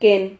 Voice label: "quen", Silabas

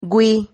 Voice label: "güi", Silabas

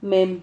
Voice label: "mem", Silabas

